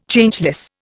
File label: "Changeless", Indian